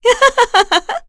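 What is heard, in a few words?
Vox Happy, Korean; Laudia; kingsraid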